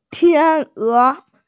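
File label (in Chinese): "tian1e2", Mandarin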